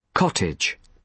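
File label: "cottage", British English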